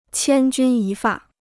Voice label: "千钧一发", Chinese